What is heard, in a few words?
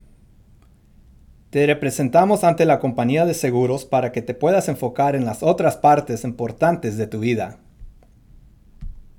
Spanish